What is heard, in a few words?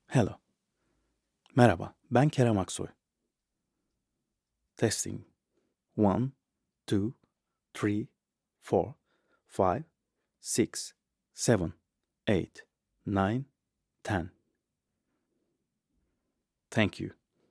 Turkish